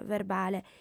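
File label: "verbale", Sardinian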